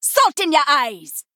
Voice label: Female Troll Attack

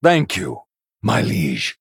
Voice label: Male Human Victory

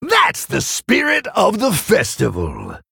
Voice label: Male Tauren

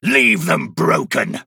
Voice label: Male Night Elf Attack

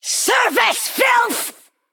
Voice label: Female Naga Attack

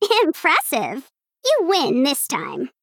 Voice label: Female Gnome Concede

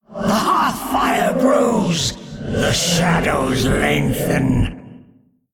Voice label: Male Elder God Emote Winstreak

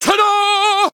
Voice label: Male Human Attack

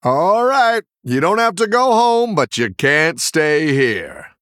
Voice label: Male Human Death; Hero; Scabbs Mission1

